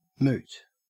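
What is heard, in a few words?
"moot", Australian English; pronunciations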